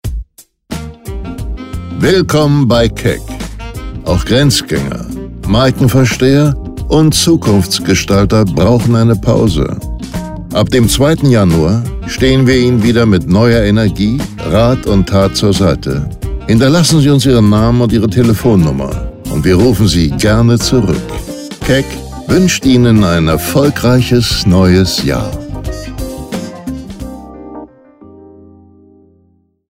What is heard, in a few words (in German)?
Feiertagsansage; Telefonansage; 1a-telefonansagen